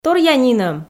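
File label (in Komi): Komi, торъя нинӧм, female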